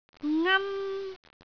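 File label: "ngan1", Cantonese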